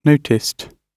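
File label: "noticed", British English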